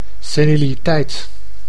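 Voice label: "seniliteit", Dutch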